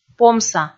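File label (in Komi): "помса", Komi, female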